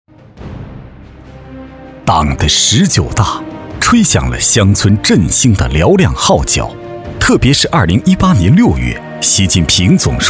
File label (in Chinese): 男, 专题